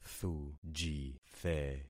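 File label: "thujithe", concatenated syllables; Affixing experiment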